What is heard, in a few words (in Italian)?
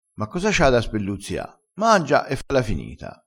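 "spelluzzia", vocabolario dialettale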